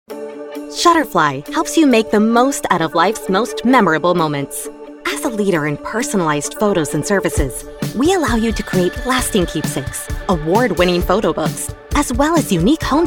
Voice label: Upbeat and promotional; explainer